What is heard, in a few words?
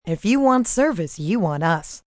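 Want Service Female, Commercial; Broadcast; VoiceBox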